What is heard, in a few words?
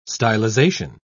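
"stylisation", English, uk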